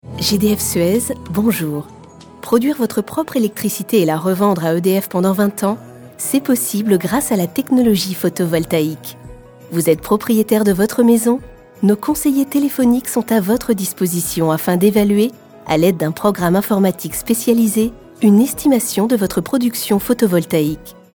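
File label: French informative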